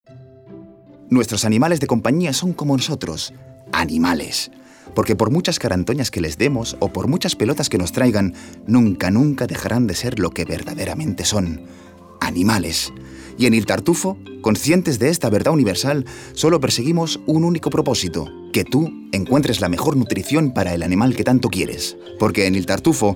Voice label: male spanish dogs comercial; ideasonora

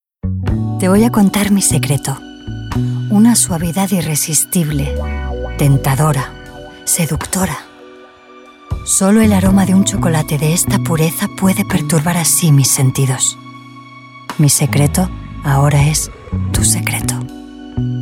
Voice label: female spanish comercial; ideasonora

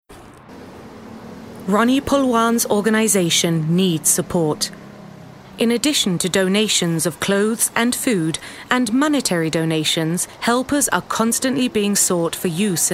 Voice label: female british english commercial

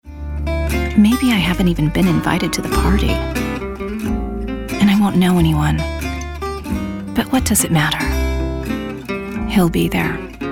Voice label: female american english jewelry comercial; ideasonora

